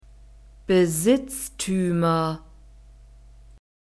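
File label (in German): "Besitztümer", German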